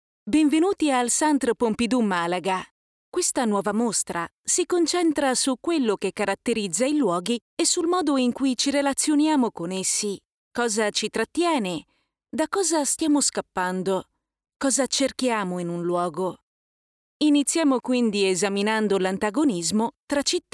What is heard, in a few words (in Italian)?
Audioguida